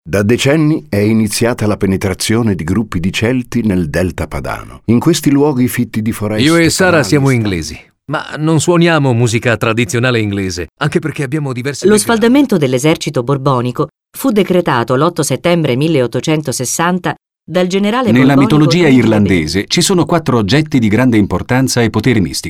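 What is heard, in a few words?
vo mix Italian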